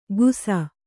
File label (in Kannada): "ಗುಸ", Kannada